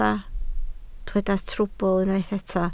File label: "trwbl", Welsh